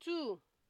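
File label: "two", Nigerian Pidgin, female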